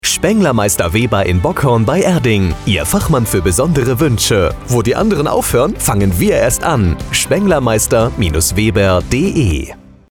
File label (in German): radiospot